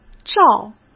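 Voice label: "照", Mandarin Chinese; chinese flashcards; hsk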